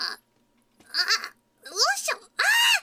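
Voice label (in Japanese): "ああ", Japanese; キレ芸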